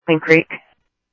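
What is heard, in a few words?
Sheriff West County, scanner audio trunk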